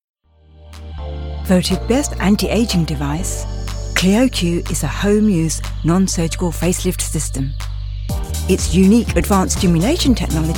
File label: ENG F Commercial, accent of India